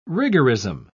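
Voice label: "rigorism", British English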